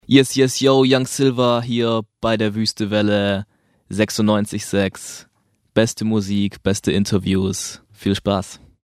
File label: station ID